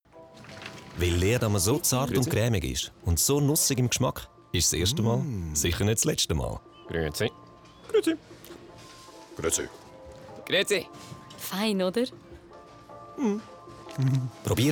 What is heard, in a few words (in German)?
Werbung SCHWEIZ; leerdammer inkognito